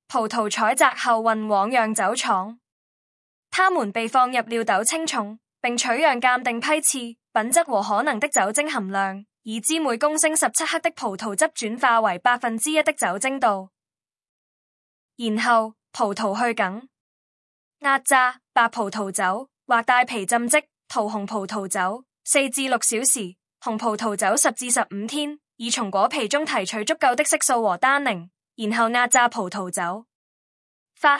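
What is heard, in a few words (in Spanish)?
elabora, Chinese; elaboracion del vino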